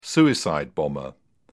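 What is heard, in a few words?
"suicide bomber", British English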